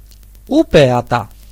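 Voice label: awesome, Finnish; gcompris-data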